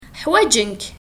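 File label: Kabyle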